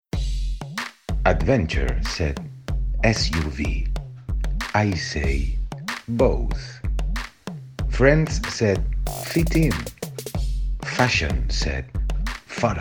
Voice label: male non native english car comercial; ideasonora